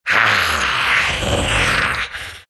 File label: undying snarl; dota2